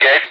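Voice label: Speech, Russian, air traffic control; Digits; DCS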